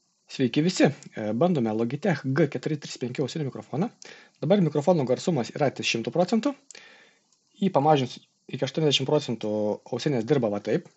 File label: Microphone; logitech g435